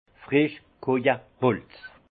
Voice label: "freschhghaue", Alsace; vin; bois coupé

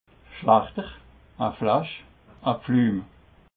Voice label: "schlechder", alsace

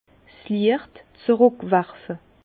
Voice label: "liecht zruckwerfe", Bas Rhin; vin; renvoyer la lumière

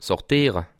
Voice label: "sortiere", alsace

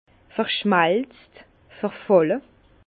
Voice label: "verschmelzt", Bas Rhin; fondu; vin

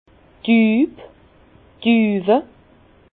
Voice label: "dub", Bas Rhin; douves douelles; vin

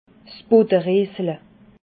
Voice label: "buttereesle", alsace

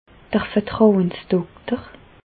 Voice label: "vertrauensdokter", Bas Rhin; medecine; médecin conseil

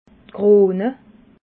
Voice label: "krone", alsace

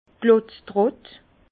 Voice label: "klotztrott", Bas Rhin; vin; pressoir à vis

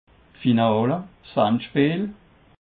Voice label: "finale", Alsace

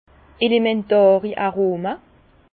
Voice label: "elementari", Bas Rhin; vin; arômes primaires

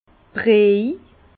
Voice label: "briej", Bas Rhin; vin

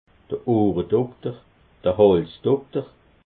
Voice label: "ohredokter", alsace

